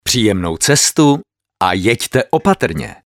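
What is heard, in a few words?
male Czech IVR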